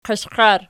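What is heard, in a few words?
"qiashqiar" nominative, Archi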